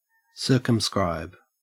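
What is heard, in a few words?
"circumscribe", English, Australian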